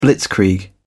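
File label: "blitzkrieg", British English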